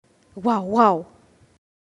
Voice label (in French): Chien - Espagnol